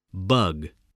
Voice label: "bug", spelling

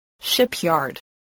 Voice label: "shipyard", spelling

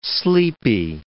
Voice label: "sleepy", words

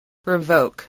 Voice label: "revoke", English

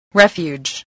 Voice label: "refuge", spelling voice

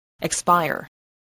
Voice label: "expire", voice

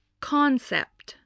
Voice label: "concept", english

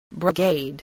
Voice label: "brigade", spelling

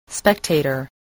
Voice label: "spectator", English voice

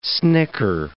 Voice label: "snicker", english

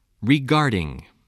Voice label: "regarding", spelling voice

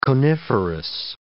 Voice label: "coniferous", English

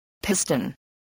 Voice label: "piston", voice, English

